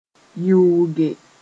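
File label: "yɩʋʋge", Mossi; hold with pincers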